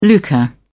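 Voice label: "lucre", British English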